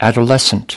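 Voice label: "adolescent", American English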